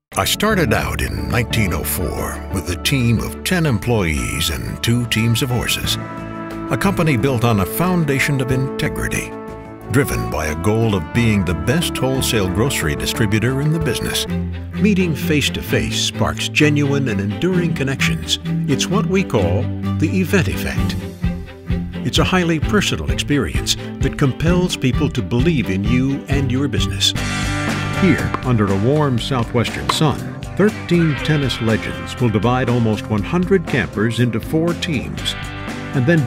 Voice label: Narration